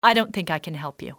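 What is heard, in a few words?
dont think, english, female; XBOX; civ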